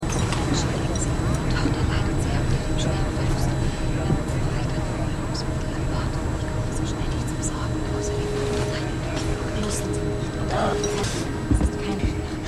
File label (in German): Flugbegleiterin; Frida Lancaster; Constellation